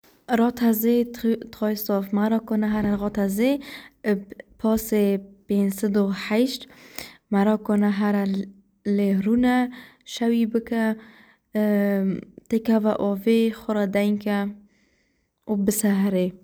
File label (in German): kurdisch; Rotter see